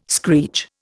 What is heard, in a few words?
"screech", Indian